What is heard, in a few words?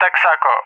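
"Texaco", English speech; CallSign; DCS; Allied Flight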